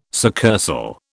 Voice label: "succursal", British